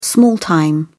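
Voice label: "small-time", English, British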